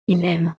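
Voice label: "il aime", French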